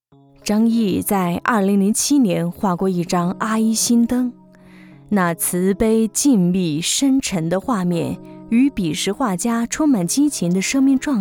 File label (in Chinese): 女5; 心灯